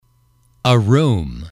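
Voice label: "a room", English, male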